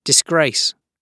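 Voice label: "disgrace" British English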